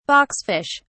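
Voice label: "boxfish", English, British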